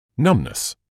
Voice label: "numbness", English

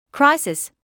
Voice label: "crisis", English

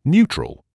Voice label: "neutral", English

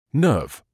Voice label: "nerve", English

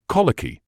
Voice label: "colicky", medical english uk; ˈkɒl.ɪ.kiː; KOL-i-kee

